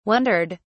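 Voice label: "wondered", British English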